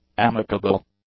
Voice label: "amicable", English; adjectives

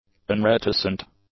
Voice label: "unreticent", english; adjectives

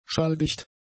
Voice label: "schalldicht", german; adjectives

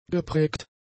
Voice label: "geprägt", German; adjectives

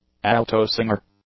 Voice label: "alto-singer", English; nouns

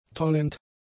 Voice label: "tollend", German; adjectives

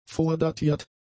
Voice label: "vordatiert", German; adjectives

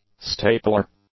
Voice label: "stapler", english; nouns

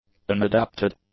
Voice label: "unadapted", English; adjectives

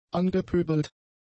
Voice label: "angepöbelt", German; adjectives

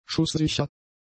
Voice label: "schusssicher", German; adjectives

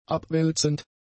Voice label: "abwälzend", german; adjectives

